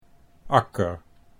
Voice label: "akker", Dutch